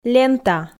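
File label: "лента", Komi, female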